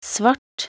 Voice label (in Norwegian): "svart", nb_NO